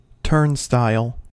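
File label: "turnstile", American English; pronunciations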